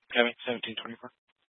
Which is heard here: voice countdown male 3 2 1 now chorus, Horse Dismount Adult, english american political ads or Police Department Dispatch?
Police Department Dispatch